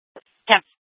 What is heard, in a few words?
Police South Dispatch; Scottsdale Police